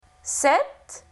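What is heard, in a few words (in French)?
"Sept", French; Lingvo; francais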